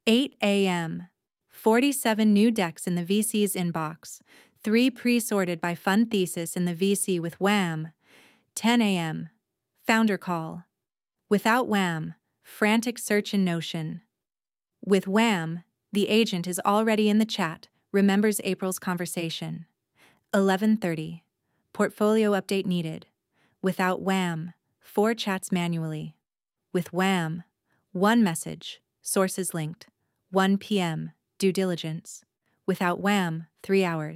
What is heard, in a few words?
voiceover, en